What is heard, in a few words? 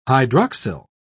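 "hydroxyl", British English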